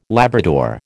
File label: "Labrador", American